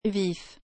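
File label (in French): "vif", French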